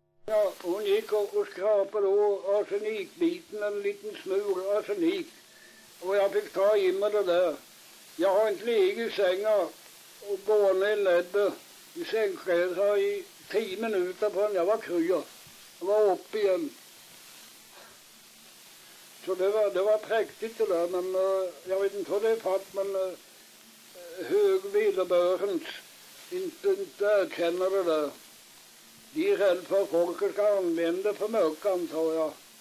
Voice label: dialekter; Folkminnen; Svenska sagor

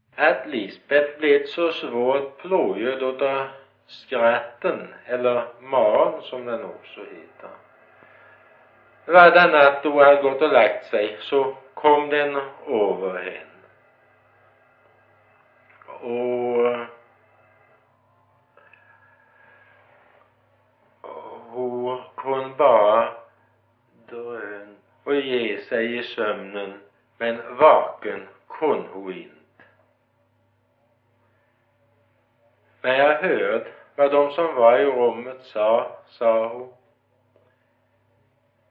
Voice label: Gårdby ÖL, dialekter; Folkminnen; Svenska sagor

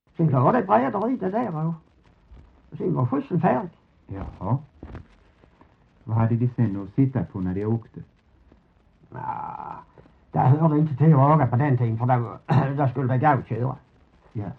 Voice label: Hörja SK, dialekter; Folkminnen; Svenska sagor

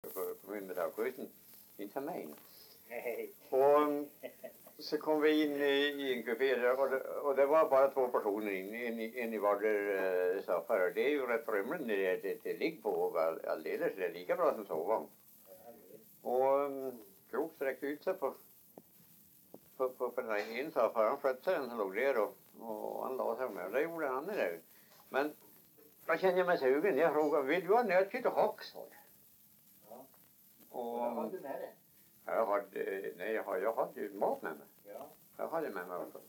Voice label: dialekter; Folkminnen; Svenska sagor

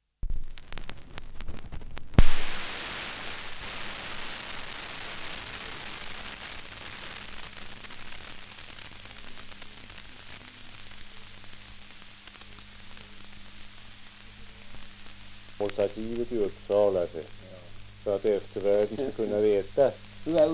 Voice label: Svenska sagor, dialekter; Folkminnen

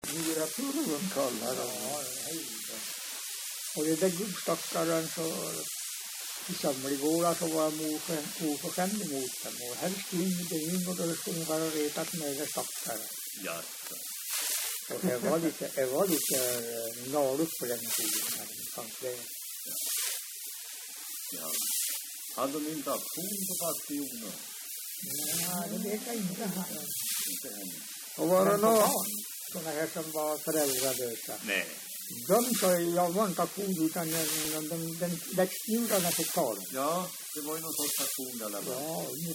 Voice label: dialekter; Folkminnen; Svenska sagor

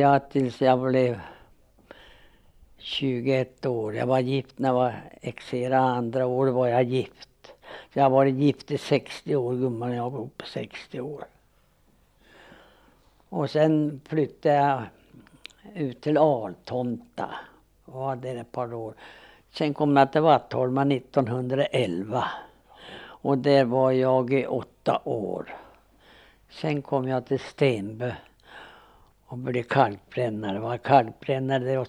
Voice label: Björklinge, dialekter; Folkminnen; Svenska sagor

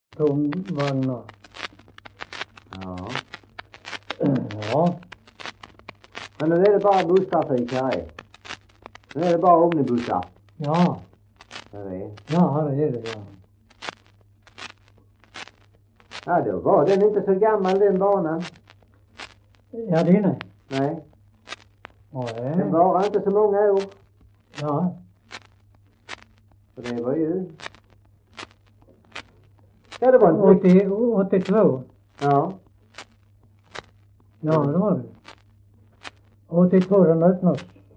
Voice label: Hallaröd, dialekter; Folkminnen; Svenska sagor

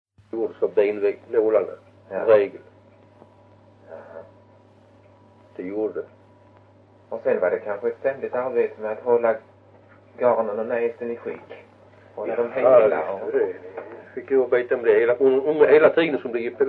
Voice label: Vitaby, dialekter; Folkminnen; Svenska sagor

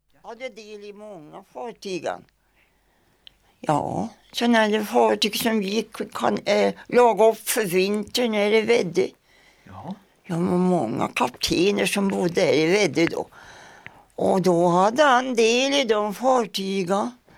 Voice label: Svenska sagor, dialekter; Folkminnen

